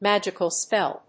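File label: "magical spell", English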